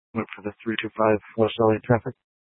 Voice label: Tempe PD, scanner; A04 South